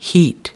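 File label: "heat", American English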